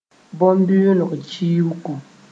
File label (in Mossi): "bõn-bɩʋʋngo kiuugu", moore